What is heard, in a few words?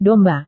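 "domba", Indonesian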